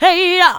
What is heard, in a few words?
HE YEAA, ADLIB WOM, Funky Vocals; USB Soundscan vol.18; AKAI